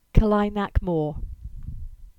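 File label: English; Kelynack Moor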